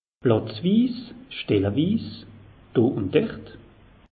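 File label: "platzwiis", Alsatian; meteo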